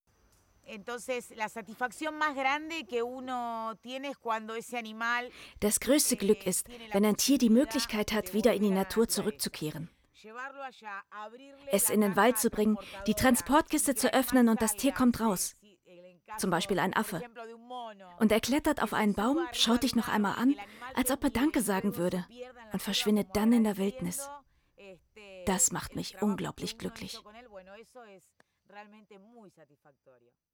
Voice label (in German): Doku Voiceover